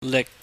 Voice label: "lik", Chinese